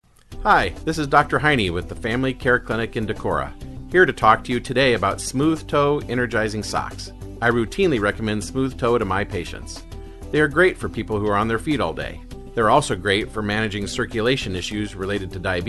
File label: Testimonial; Smooth Toe